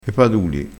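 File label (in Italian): "paduli", dialettale crespinese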